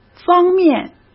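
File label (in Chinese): "方面", Mandarin Chinese; chinese-flashcards; hsk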